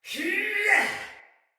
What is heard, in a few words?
Attack Heavy Soldier Male; Sinecure Soldier Male A